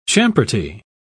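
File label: "champerty", British English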